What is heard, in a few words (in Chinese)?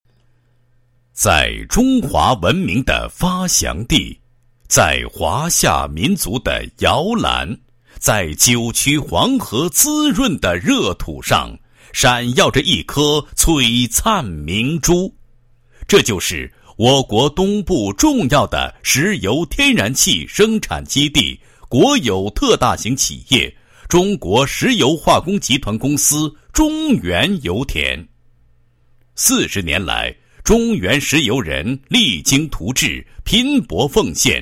男-工作纪实-工会工作纪实, 配音案例; 中国石化中原石油勘探局工会工作纪实